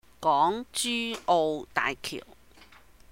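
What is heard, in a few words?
"Gong Jyu Ou Daaih Kiuh", Cantonese; the Hong Kong Zhu Hai Macau Bridge; 港珠澳大橋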